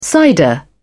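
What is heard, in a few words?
"cider", British English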